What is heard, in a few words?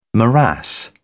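"morass", British English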